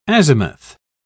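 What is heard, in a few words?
"azimuth", British English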